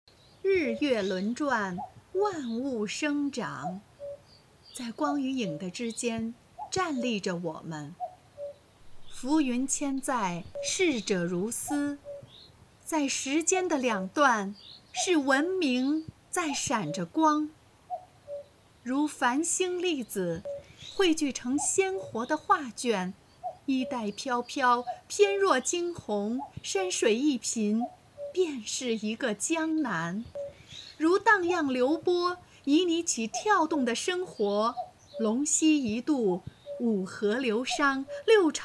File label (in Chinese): 宣传独白; 新晃